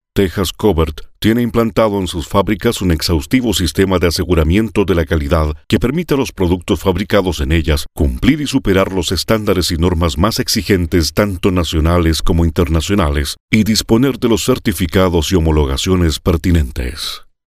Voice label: locutor chileno chilean voiceover, Chilean male voiceovers